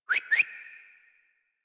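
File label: horse start adult, English; Warframe; Duviri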